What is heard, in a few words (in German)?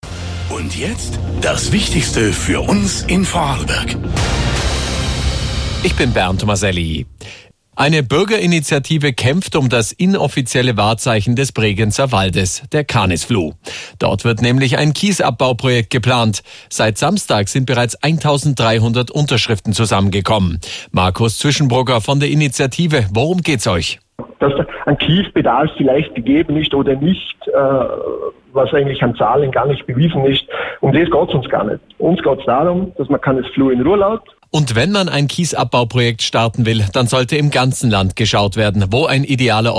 Nachrichten kurz; ANTENNE VORARLBERG